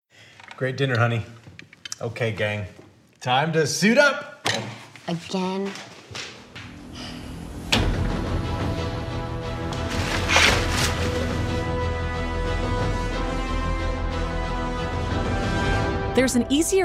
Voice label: Commercial Female Voice Over; Insinkerator